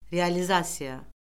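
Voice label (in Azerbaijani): "realizasiya", Azerbaijani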